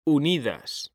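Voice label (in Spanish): "unidas", Spanish; mekanta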